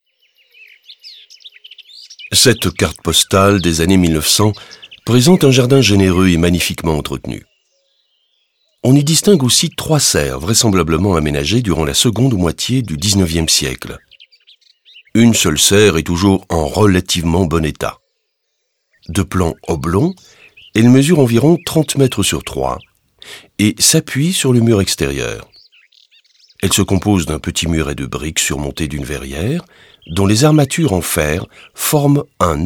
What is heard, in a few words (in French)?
audioguide fr adulte; jardin; modave